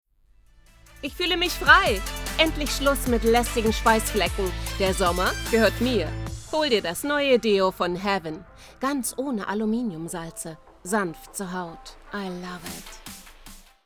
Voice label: Werbung Deo; Heaven

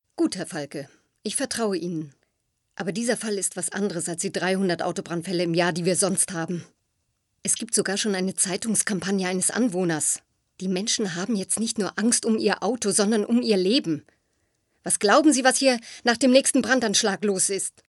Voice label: synchron

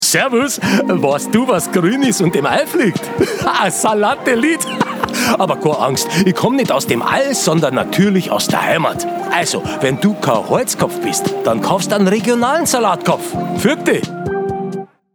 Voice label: bayrisch

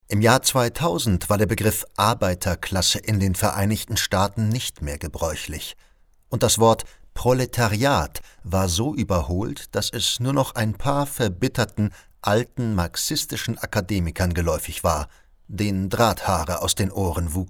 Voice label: Hoerbuch; Hooking Up

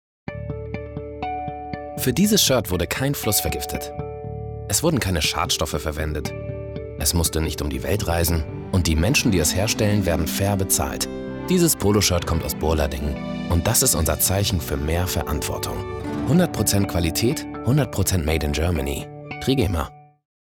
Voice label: neuer TV Spot; TRIGEMA

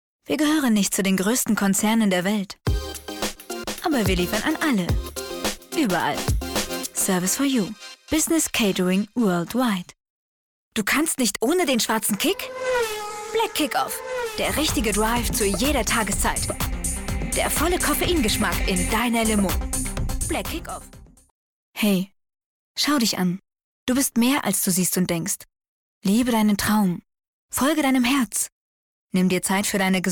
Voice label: Werbung jung dynamisch frisch warm

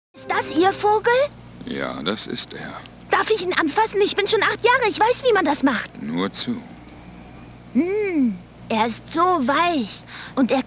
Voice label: Stimmprobe Junge; LOFT VOICES

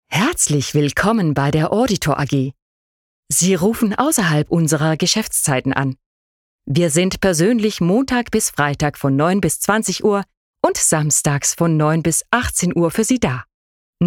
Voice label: Testspeak Deutsch

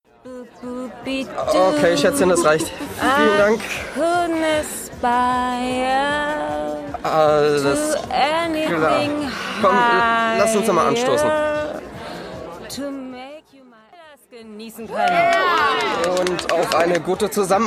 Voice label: Aufnahme Hörspiel; LOFT VOICES

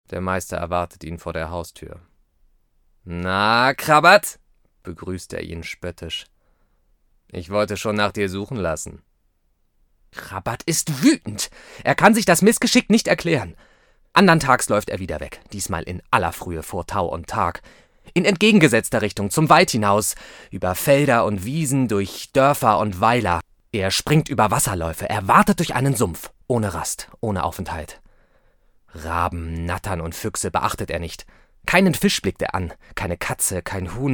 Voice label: Kinderhoerbuch spannend; LOFT VOICES

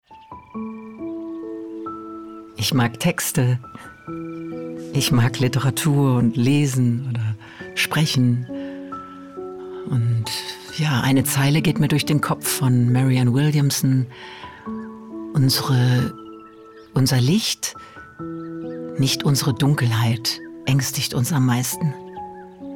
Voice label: Innerer Monolog mit Musik, Presentation, German; voice

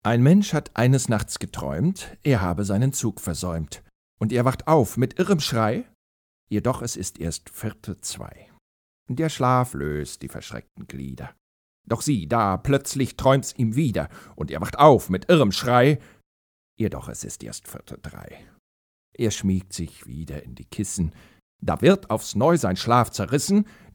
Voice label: erzaehlung

